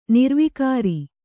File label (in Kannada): "ನಿರ್ವಿಕಾರಿ", Kannada